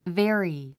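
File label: "vary", English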